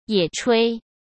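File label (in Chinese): "野炊", Mandarin Chinese; hsk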